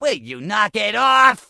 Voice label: Goblin Male Gruff NPC Pissed

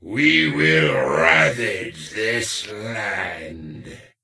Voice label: NPC Death Knight Male Med Farewell Scourge

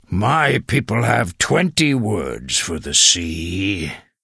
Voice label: Tuskarr Male Pissed; Warrior

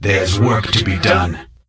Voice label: Death Knight Male Low Farewell

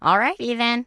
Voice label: Gnome Male Young NPC Farewell

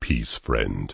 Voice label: Tauren Male Warrior NPC Greeting

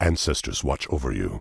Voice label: Tauren Male Warrior NPC Farewell

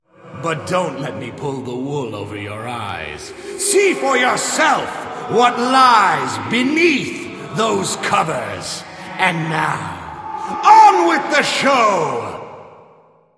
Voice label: Announce; Barnes The Stage Manager

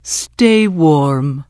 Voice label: NPC Tuskarr Female Farewell; Warrior

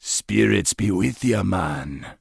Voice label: Troll Male Standard Farewell; NPC

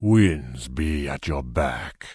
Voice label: Tauren Male Elder NPC Farewell

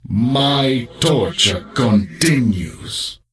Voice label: Death Knight Troll Male Pissed

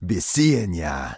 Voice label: Troll Male Shaman NPC Farewell

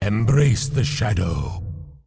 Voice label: Undead Male Warrior Farewell; NPC

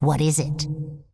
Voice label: Undead Female Magic NPC Greeting

